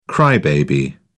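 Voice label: "cry baby", British English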